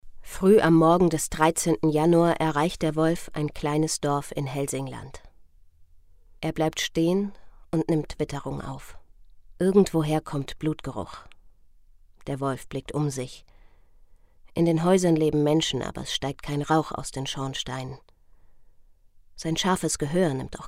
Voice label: Hoerbuch Krimi

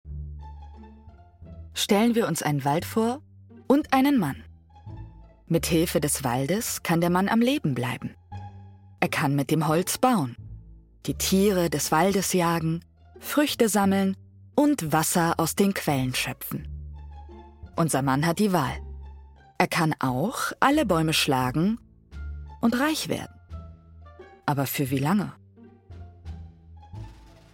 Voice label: Imagefilm

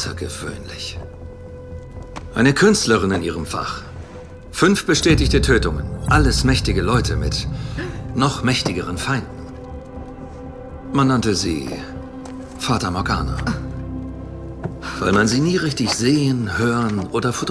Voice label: DE Synchron CIA Agent autoritaer

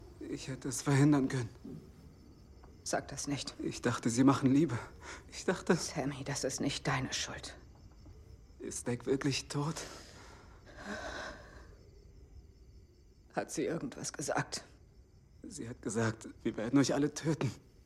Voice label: verzweifelt; Synchron; Blood And Sinners; Regie: Stefan Fredrich; Dialog mit junger Frau

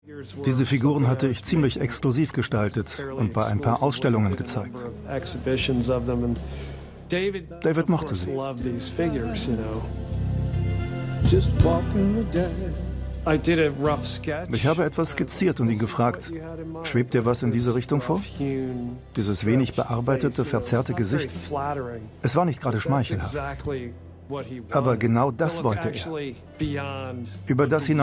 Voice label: Voiceover; arte; David Bowie Die letzten Jahre

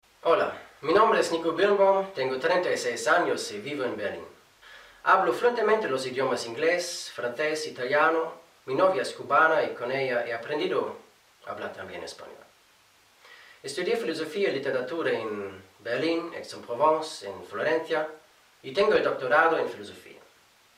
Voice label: Spanisch; Hola!

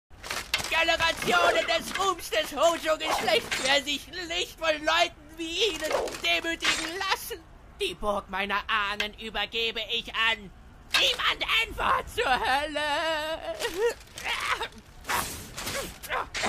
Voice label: Comicstimme alt und schrill

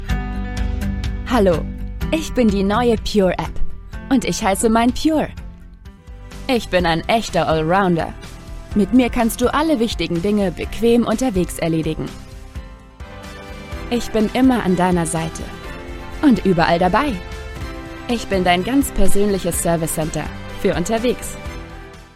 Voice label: Imagefilm; PYUR APP

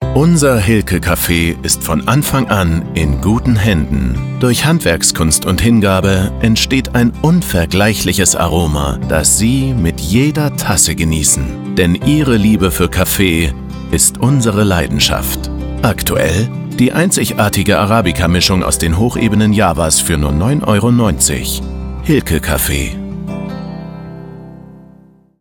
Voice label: warm

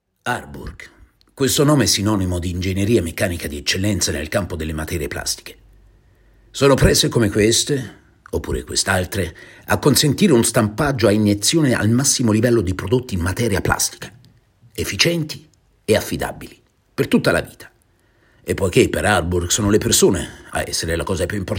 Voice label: Imagefilm; Imagefilm / Fremdsprache; italienisch / männlich / bestimmt; Arburg

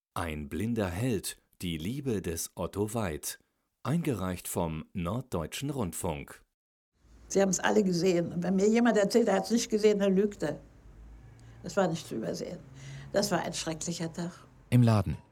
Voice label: Audiodeskription

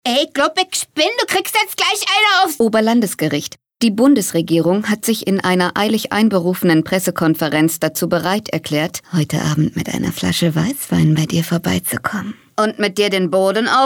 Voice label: WERBUNG; ZAPPING

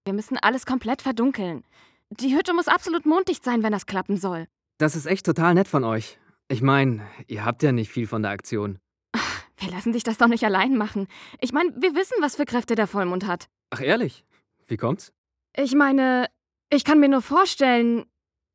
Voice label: Synchrondemo Szene

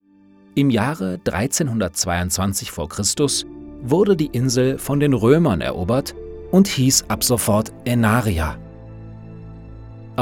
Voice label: Doku

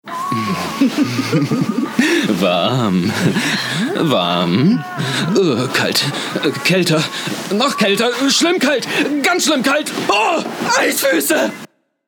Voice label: werbung; WERBUNG - Stromkonzern; Innogy

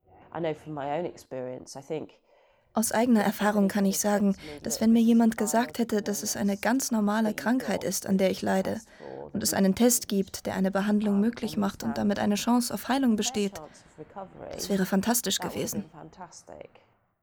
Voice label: OV Reportage

